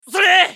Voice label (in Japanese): ソルジャー男 攻撃短; リボルドウェソルジャー